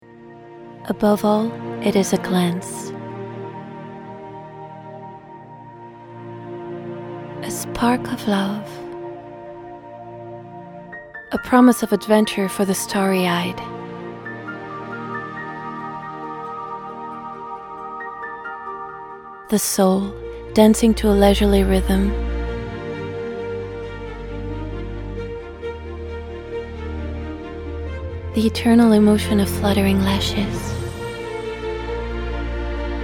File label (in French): Anglais; Talika